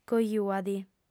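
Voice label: "cojua·ti", female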